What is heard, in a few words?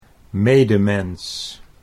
"medemens", Dutch